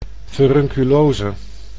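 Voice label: "furunculose", Dutch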